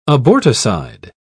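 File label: "aborticide", British English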